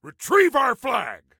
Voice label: Flag Retrieve, Soldier Male; Soldier Male 03